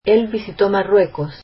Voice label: spanish he visited Morocco